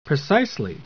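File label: "precisely", English; QFreeRecite; TTS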